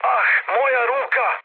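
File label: Serbian damaged pilot mood med, speech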